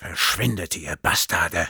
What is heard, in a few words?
Sniper taunts, de